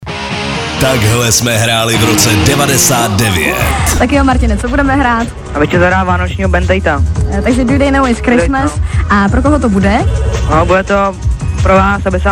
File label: liner; evropa2; narozky e2